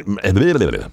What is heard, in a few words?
stammer